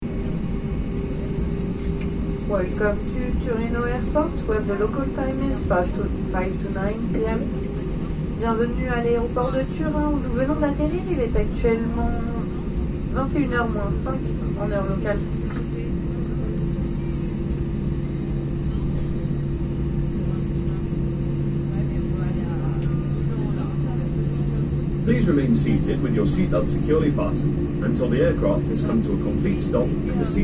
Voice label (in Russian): info obiavl v samol, Звуки самолёта; Сигнал в салоне самолёта